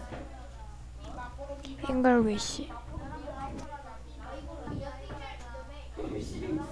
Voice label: English

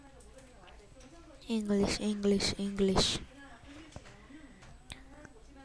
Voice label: English

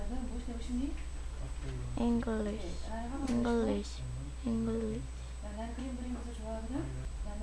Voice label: English

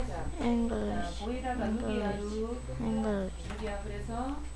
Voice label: English

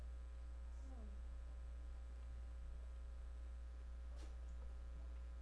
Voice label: announcer

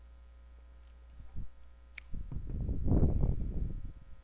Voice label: announcement

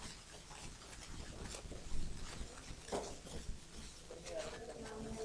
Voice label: whisper

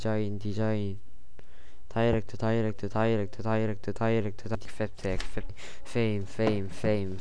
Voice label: dialect

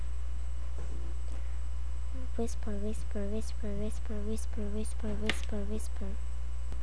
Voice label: whisper

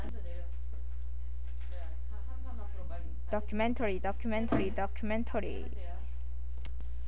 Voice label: documentary

